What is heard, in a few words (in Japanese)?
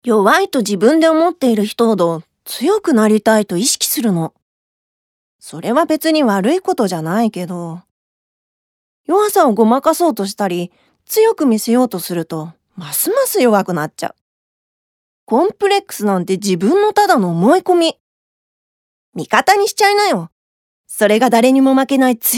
セリフ 女性